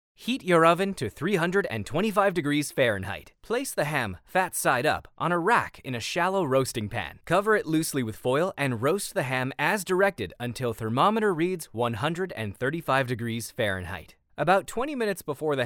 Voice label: male american voice over narrative, english american